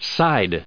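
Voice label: "side", US English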